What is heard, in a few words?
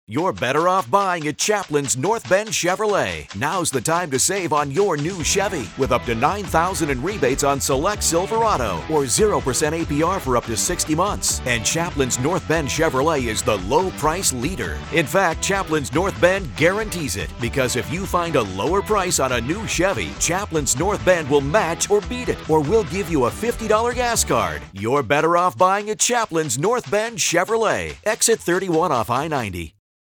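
Car Spot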